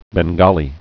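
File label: "bengali", spoken word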